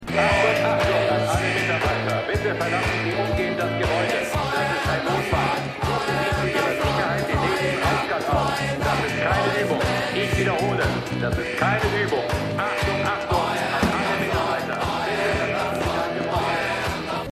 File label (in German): Durchsage